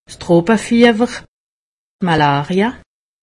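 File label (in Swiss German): "malaria", Alsatian; medecine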